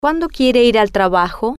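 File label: Cuando Quiere Ir Trabajar, Spanish, female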